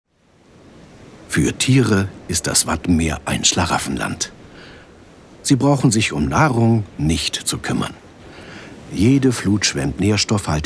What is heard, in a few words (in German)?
Doku